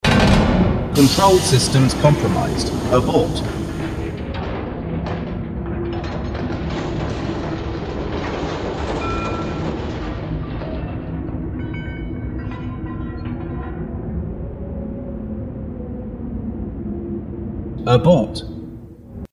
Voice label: Dispatch